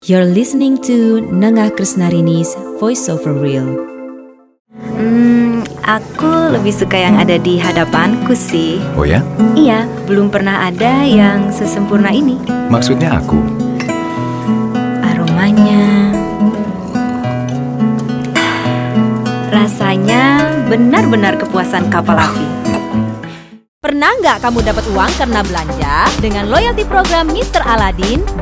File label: Female Indonesian; VO Reel